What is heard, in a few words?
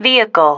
"vehicle", female